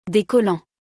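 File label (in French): "Des collants", French; vocabulaire; le lexique de l'habillement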